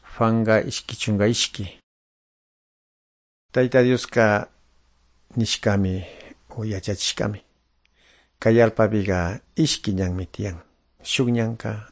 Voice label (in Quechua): good news quichua de calderon